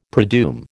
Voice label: "predoom", American